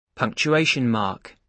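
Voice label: "punctuation mark", British English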